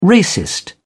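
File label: "racist", British English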